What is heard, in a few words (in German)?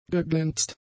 "geglänzt", german; adjectives